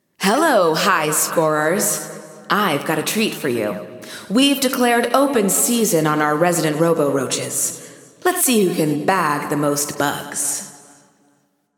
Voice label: Announcing New Challenges; Roaches; GAIA

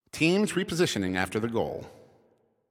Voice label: Announcer Waiting For Players After Score

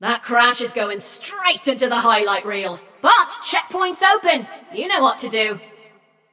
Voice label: Scrap Run Go To Checkpoint, Announcer; Flux

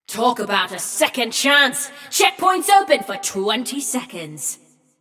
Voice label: Checkpoint Opened, Announcer; Flux; Scraprun

